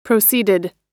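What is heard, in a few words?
"proceeded", American English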